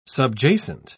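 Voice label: "subjacent", British English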